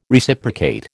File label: "Reciprocate", American